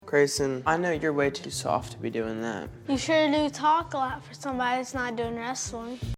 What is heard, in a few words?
unscripted dialogue